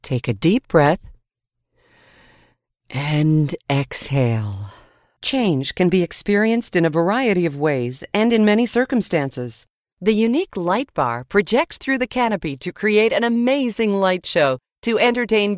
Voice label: english canadian commercial